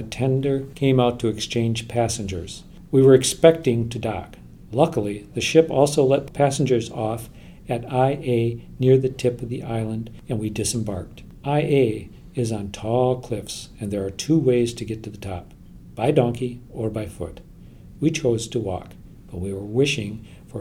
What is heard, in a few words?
AT825 stereo male, microphones